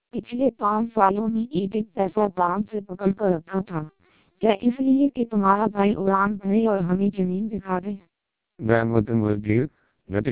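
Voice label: TWELP 400 bps Vocoder, Hindi speech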